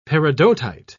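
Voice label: "peridotite", British English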